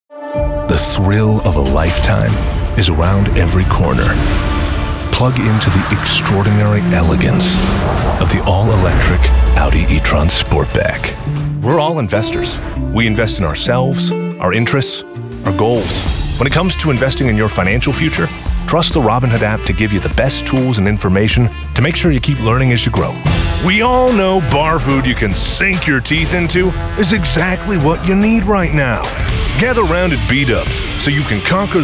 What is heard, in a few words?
Commercials